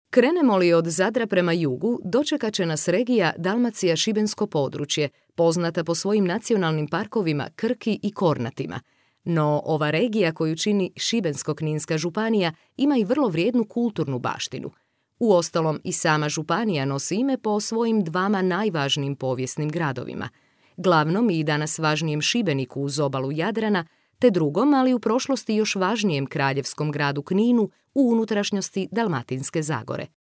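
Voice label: Croatian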